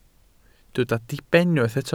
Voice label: "diben", Welsh

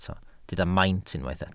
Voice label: "maint" Welsh

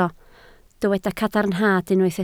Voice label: "cadarnhad" Welsh

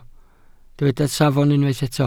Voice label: "safon", Welsh

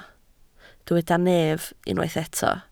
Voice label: "nef", Welsh

